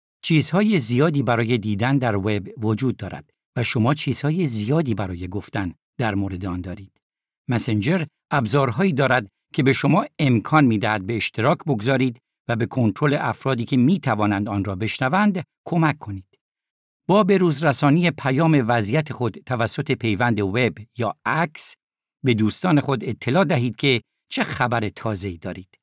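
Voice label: Farsi, male, explainer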